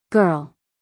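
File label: "girl", American English, female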